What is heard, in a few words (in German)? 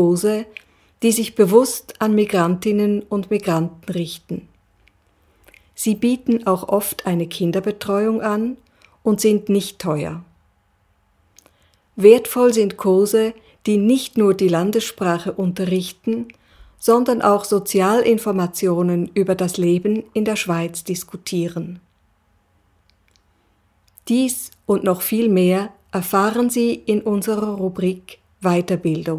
deutsch